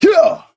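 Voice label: "huh", vocal sample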